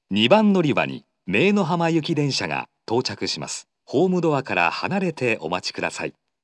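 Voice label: approaching Meinohama, station announcement, Fukuoka city subway; 接近-姪浜行; 福岡市地下鉄 空港線・箱崎線 中洲川端駅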